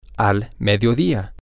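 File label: at midday, spanish, male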